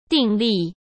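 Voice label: "订立", Mandarin Chinese; hsk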